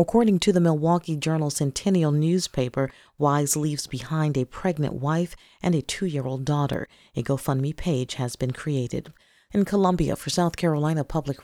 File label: newscast soldier death